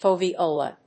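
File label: "Foveola", English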